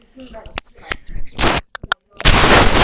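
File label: "can", spoken word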